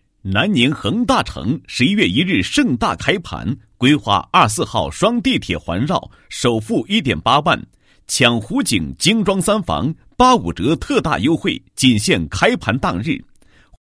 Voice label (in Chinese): 男 房地产广告; 南宁恒大城开盘优惠